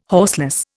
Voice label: "horseless", Indian

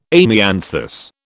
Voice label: "amianthus", British

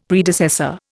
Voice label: "Predecessor", Indian; Dictionary

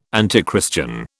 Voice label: "antichristian", British

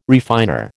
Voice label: "refiner", American

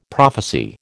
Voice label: "prophecy", American

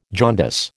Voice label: "jaundice", American

